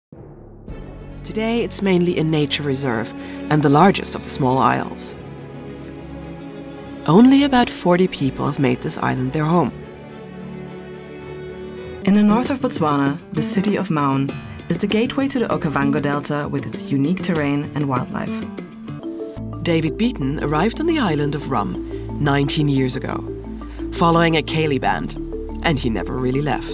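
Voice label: British English, Narrative; voice demos; GoLocalise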